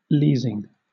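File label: "leasing", British English; pronunciations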